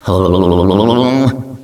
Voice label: hublblblblblbl, echo inside caves, underground; DS; ML BOWSERS INSIDE; Luigi

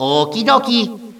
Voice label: okey dokey, Echo inside caves, underground; DS; ML BOWSERS INSIDE; Luigi

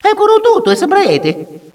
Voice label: talking, Echo inside caves, underground; DS; ML BOWSERSINSIDE; Mario

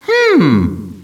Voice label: "hmm", Echo inside caves, underground; DS; ML BOWSERSINSIDE; Mario

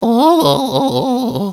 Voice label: "ohhhhhhhhhh"; DS; ML BOWSERSINSIDE; Mario

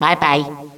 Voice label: "bye-bye", echo inside caves, underground; DS; ML BOWSERS INSIDE; Luigi

